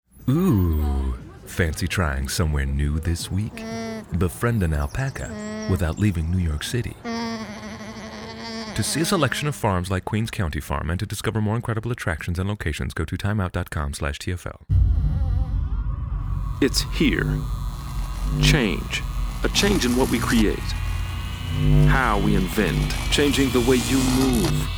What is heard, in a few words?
Commercial Voice; Commercial Voice Over